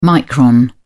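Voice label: "micron", British English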